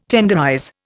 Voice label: "tenderize", Indian